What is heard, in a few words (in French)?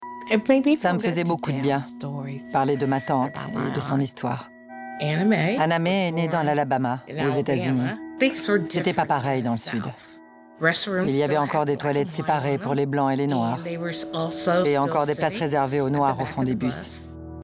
voix off; Cold Case; Cathy black; Timbre : Chaud Grave Vieux